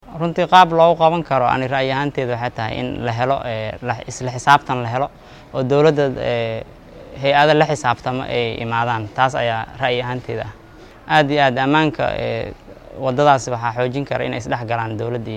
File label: VOX POP